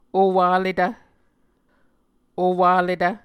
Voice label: "owaletv", Muscogee